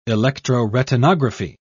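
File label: "electroretinography", British English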